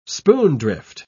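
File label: "spoondrift", British English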